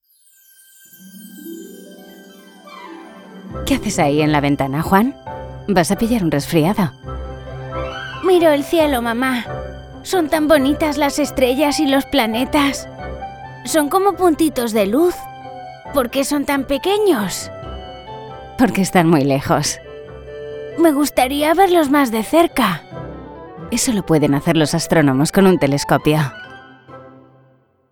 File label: Spanish animation